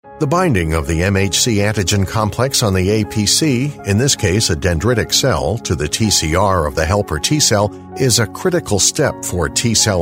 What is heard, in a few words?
american male, med pharma biotech